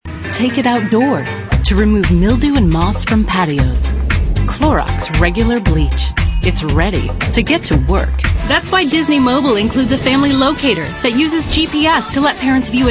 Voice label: female late20s late30s clear

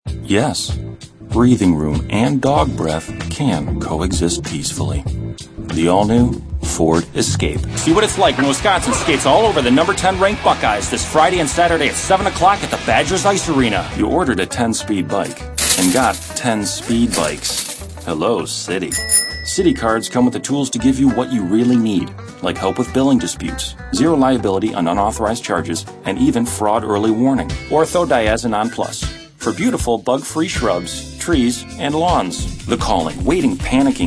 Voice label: male, mid30s mid40s warm

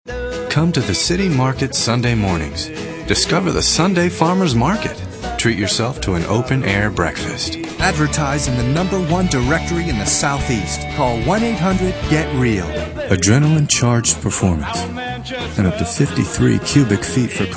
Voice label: male, mid30s mid40s warm

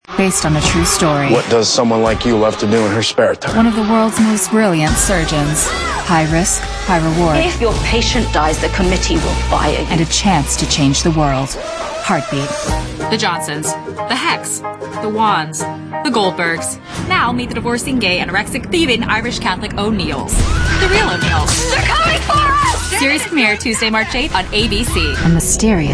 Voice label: PROMO; DEMO

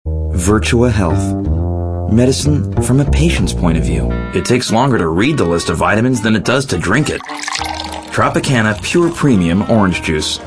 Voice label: male, mid30s mid40s warm

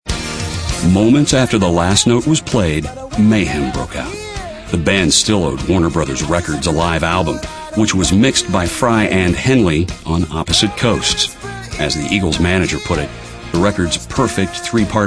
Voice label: male narration

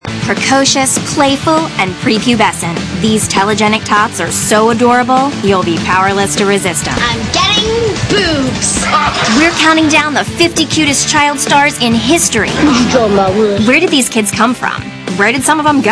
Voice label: female narration

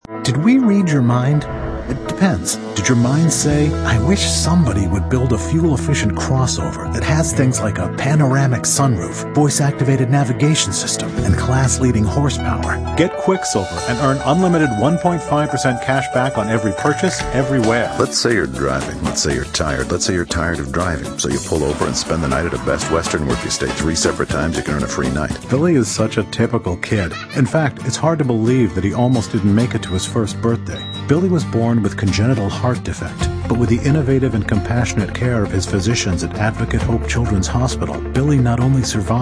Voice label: male, sophisticated

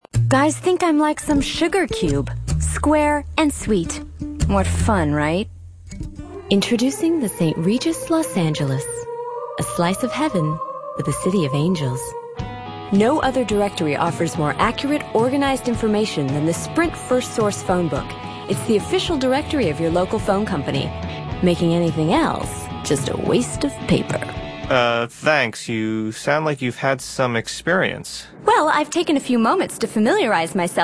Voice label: female, late20s late30s clear

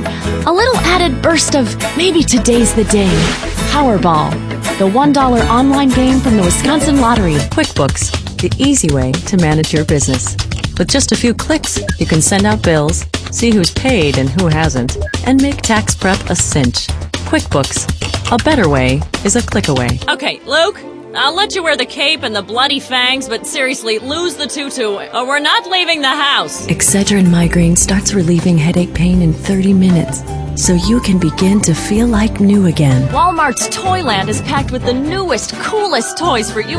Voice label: clear, female, late 20s late 30s

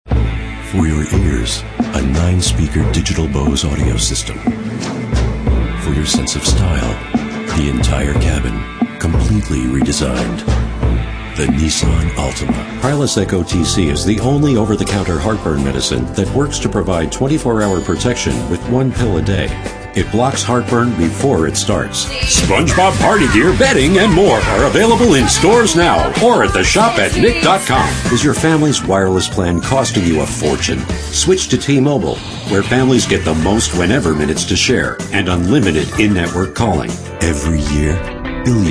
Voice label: male mid 30s mid 40s cool

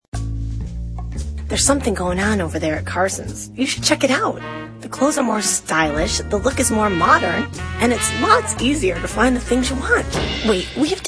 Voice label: female, late 20s mid 30s contemp

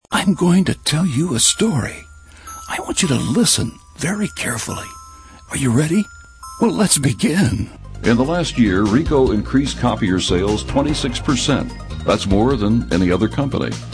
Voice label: male narration

